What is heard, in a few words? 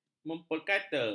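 "memperkata", Malay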